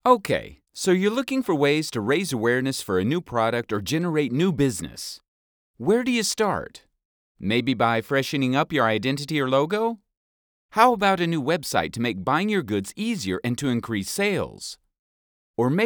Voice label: Male US Commercial